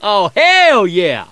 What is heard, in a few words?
cheer; l4d2 ellis